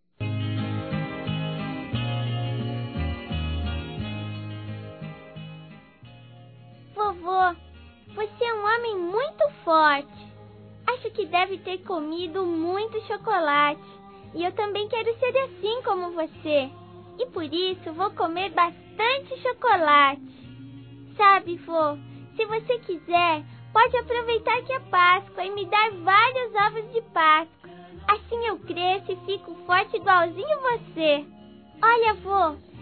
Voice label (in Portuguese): FELIZ PASCOA VOVO, Telemensagens, Infantil, fem